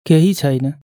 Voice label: "varsågod", Nepali; nepali språket